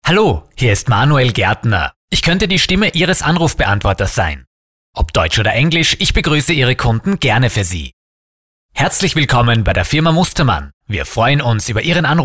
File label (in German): Sprecher Telefonansagen